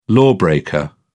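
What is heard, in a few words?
"lawbreaker", British English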